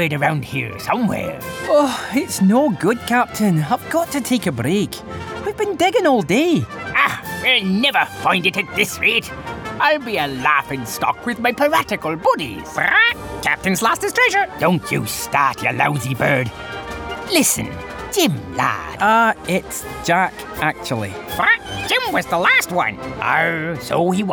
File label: Character